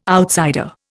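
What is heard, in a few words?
"outsider", Indian English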